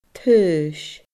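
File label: "taois", Scottish Gaelic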